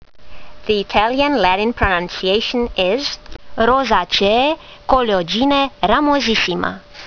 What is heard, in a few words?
latin; Coleogyne ramosissima